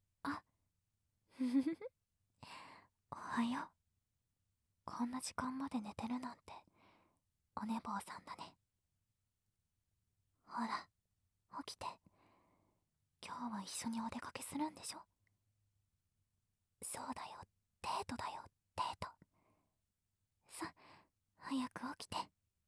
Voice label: 彼氏Ver：おはようボイス

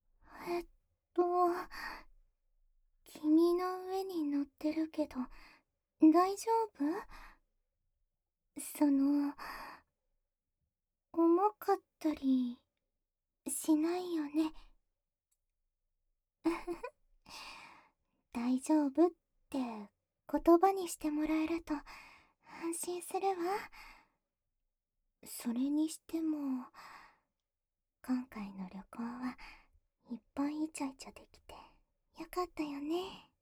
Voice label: 効果音なし ピロートーク

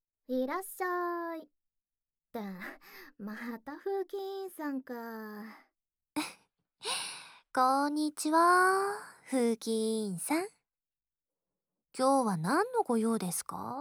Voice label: 添い寝授乳太ももコキ×真面目教師を甘やかしマゾいじめ, ASMR

